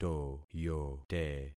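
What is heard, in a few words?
"toyote", concatenated syllables; Affixing experiment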